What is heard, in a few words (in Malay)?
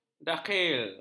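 "dakhil", Malay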